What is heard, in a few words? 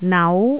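"nau2", Cantonese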